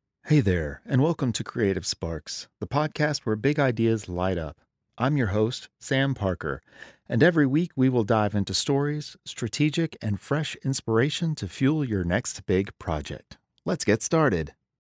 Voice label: Podcast Introduction